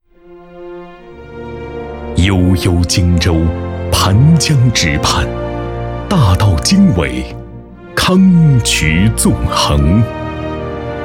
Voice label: 男 宣传片; 现场会视频文案

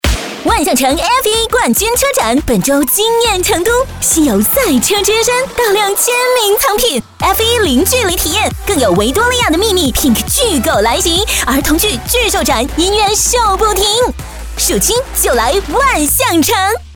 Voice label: 女76 促销 时尚潮炫 商超类

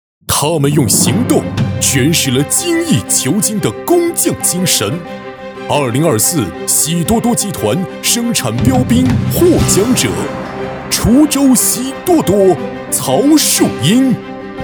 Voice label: 男, 颁奖

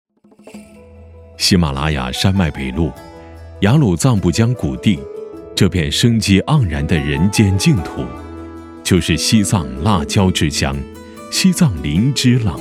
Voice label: 男 宣传 美食品牌; 辣椒

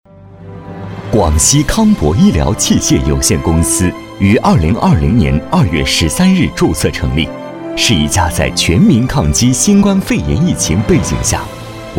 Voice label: 男 企业 大气 沉稳; 广西康博

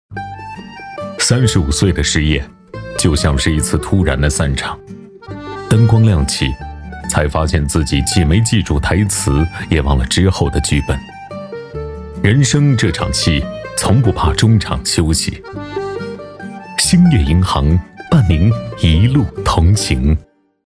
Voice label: 男 TVC; 兴业银行

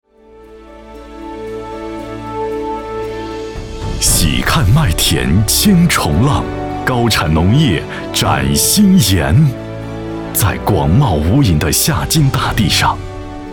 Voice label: 男 宣传; 高标准农田

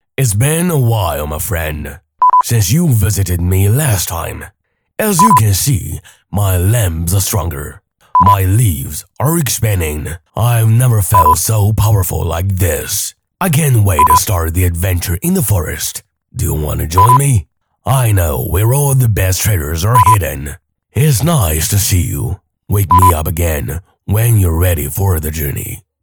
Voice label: 男 英文角色

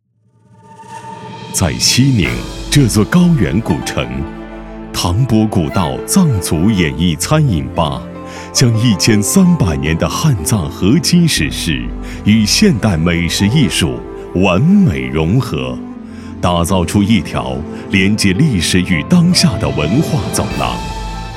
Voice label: 男 宣传 大气浑厚; 演艺餐吧

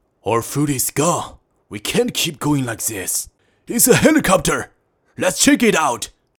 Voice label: 男 英语角色

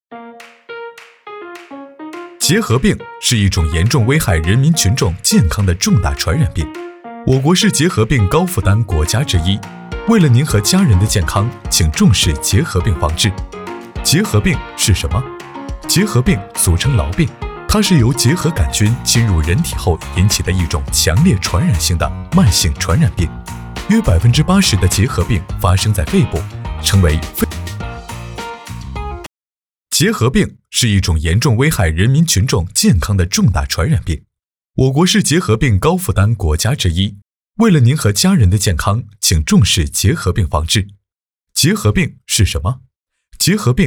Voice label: 男 创建 无结核社区

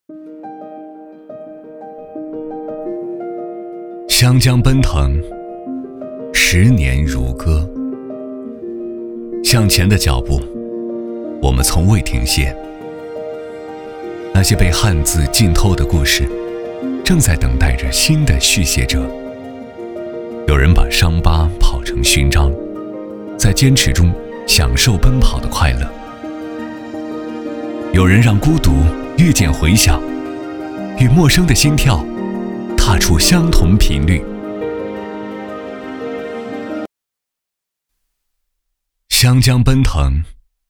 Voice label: 男349 专题; 湘江奔腾; 十年如歌

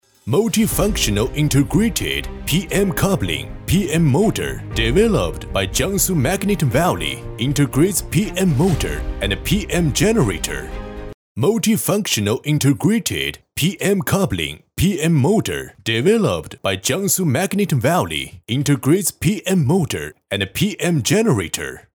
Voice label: 男 英语

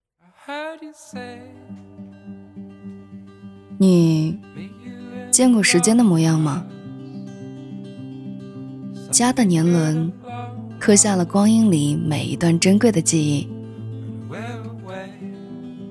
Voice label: 女 旁白 叙述

